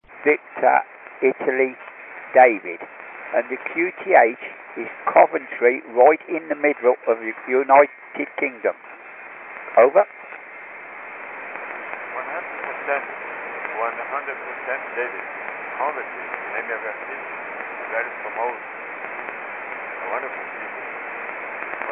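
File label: Long distance QSO between England and Brazil; Coventry, England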